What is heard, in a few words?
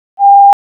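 Public Safety Tactical, p25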